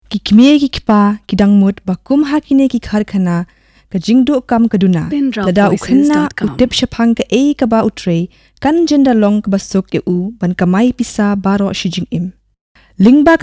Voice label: Khasi